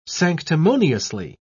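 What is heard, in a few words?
"sanctimoniously", British English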